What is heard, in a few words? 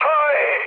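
Turkish trick, mood low, speech; War Thunder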